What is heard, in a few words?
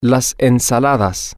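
the salads, Spanish, male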